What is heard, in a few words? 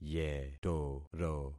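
"yetoro", concatenated syllables; Affixing experiment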